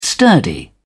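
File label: "sturdy", English, uk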